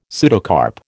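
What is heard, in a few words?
"pseudocarp", American English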